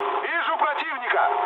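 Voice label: Russian enemy detected mood med, speech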